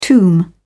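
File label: "tomb", British English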